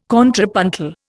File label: "contrapuntal", Indian